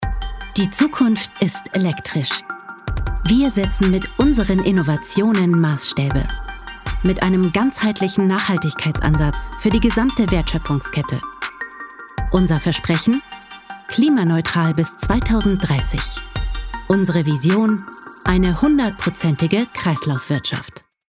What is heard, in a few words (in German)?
Corporate Werbung Deutsch